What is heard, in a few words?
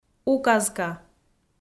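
"указка", Komi, female; kpv audio dict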